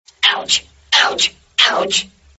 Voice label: pain; Botler; Embargo

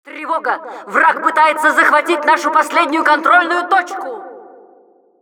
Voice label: announcer last flag, ru; Тревога! Враг пытается захватить нашу последнюю контрольную точку.; Реплики Администратора

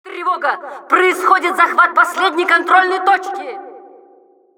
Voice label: announcer last flag, ru; Тревога! Происходит захват последней контрольной точки.; Реплики Администратора

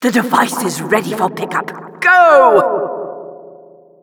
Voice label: announcer drop site

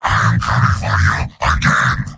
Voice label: Heavy domination; Heavy Robot audio responses

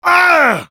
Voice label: pain severe, fr; Demoman; Douleur intense 1

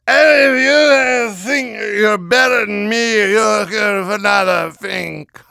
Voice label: Demoman gibberish

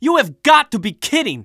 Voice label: Scout auto dejected tie

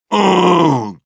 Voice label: soldier negative vocalization, ru; (рычит)

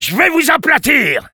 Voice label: taunts, fr; Engineer

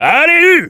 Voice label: Engineer battlecry, fr

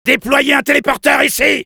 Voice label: Soldier need teleporter, fr

